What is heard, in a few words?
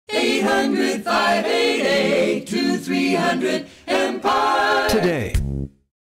commercial; empire